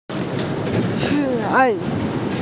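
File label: "xi3ai4", Mandarin Chinese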